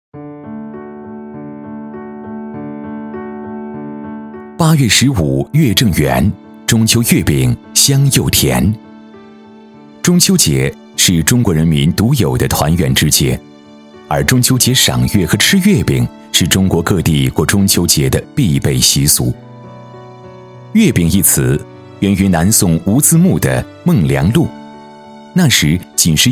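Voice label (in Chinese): 男, 温暖深情, 中秋月饼, 普通话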